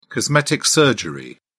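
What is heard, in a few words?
"cosmetic surgery", British English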